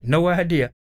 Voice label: SPOKEN, Blues Soul, TALK

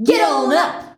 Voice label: "geddon up", shouts; Best Service; Hyper Dance

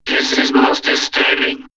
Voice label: Vocoder Most Disturbing, robot vox; X-Static Goldmine

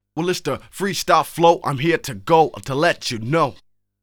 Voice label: Freestyle Flow, Rapsody Vocals; Masterbits CLIMAX 6

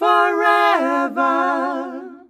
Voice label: FOREVER, MALES, Hyper Dance; Best Service

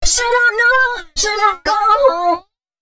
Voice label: female, Dance Ultra Voice hooklines; Mutekki Media; Dance Ultra Voice VOL-1

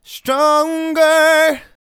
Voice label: "Stronger", vocal phrases; Ultimate Vocals

